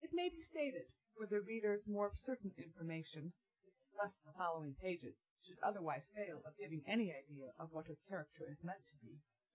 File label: female4 inst sim